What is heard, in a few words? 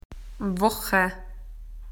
"week", AU; PictureDictionary